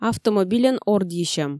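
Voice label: "автомобильӧн ордйысьӧм", Komi, female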